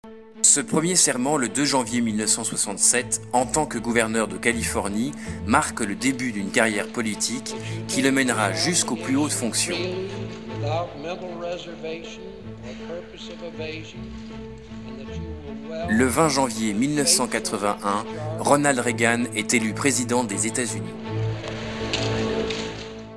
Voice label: docu; Ronald REAGAN

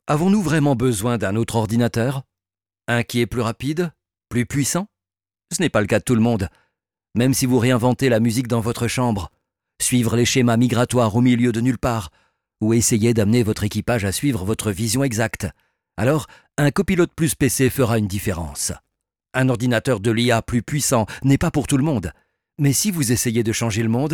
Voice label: FR M clean feed; France

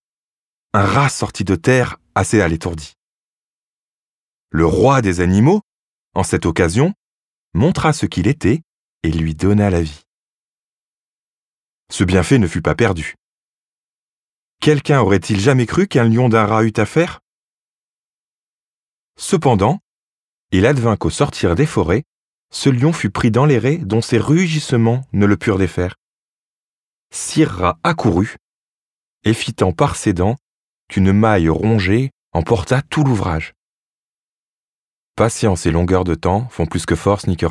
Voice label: audiobook fable

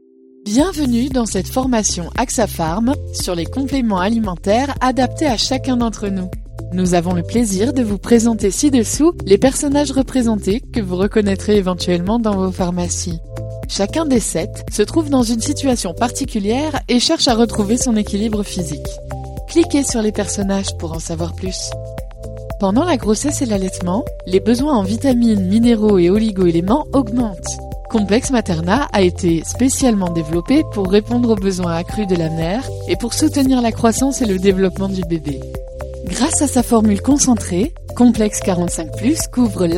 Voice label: ELearning; Axapharma

